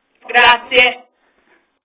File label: Thank You in Italian